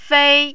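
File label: "fei", Mandarin, tone 1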